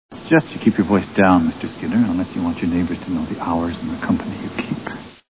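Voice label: voice down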